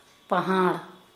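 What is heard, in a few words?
priest, Kera Mundari, female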